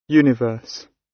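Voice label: "universe" British; Space, the planets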